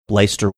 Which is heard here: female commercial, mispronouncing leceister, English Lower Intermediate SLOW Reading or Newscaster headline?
mispronouncing leceister